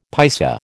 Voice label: "paisa", American